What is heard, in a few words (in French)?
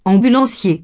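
"ambulancier" French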